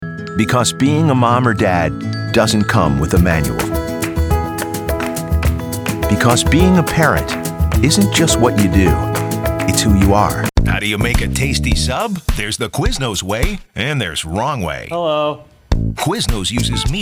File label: commercial men